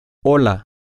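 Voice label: hello, spanish, male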